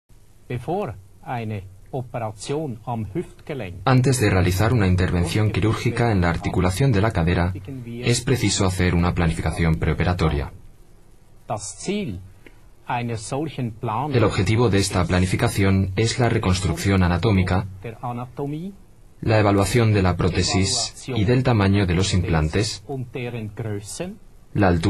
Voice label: Voiceover spanisch, maenner aus aller welt

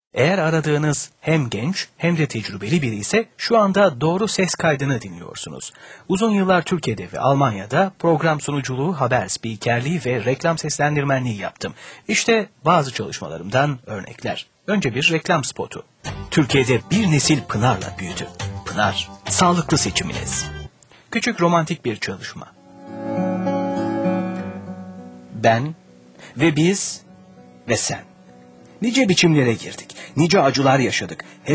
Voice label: Tuerkisch, maenner; aus aller welt

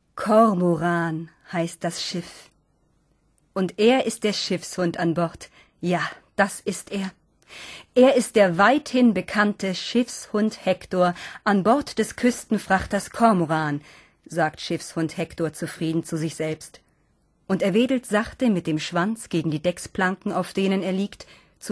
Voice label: Kinderbuch, frauen

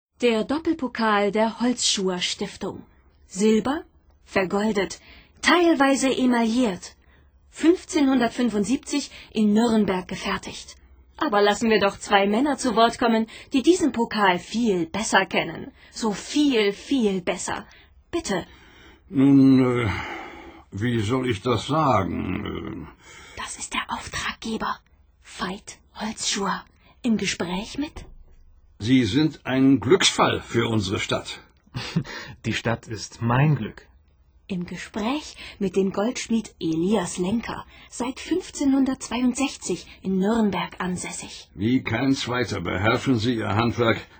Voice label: Museum, frauen

